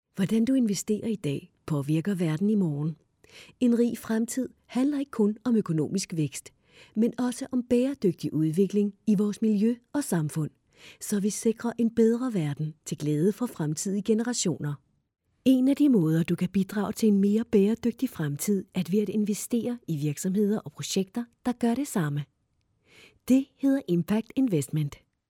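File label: Danish, female, narration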